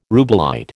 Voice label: "rubellite", American

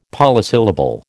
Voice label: "polysyllable", American

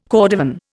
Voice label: "cordovan", Indian

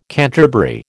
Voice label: "canterbury", American

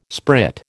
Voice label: "sprit", American

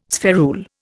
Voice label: "spherule", Indian

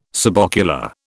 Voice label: "subocular", British

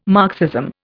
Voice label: "Marxism", Indian

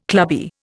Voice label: "clubby", Indian

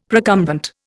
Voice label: "procumbent", Indian; Dictionary

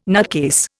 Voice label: "nutcase", Indian

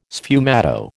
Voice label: "sfumato", American

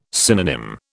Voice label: "synonym", British

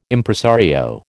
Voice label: "Impresario", American

